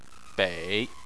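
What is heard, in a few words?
"bei" Chinese, third tone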